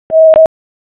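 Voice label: Raleigh Police North District Tactical, p25; wake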